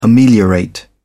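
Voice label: "ameliorate", English, British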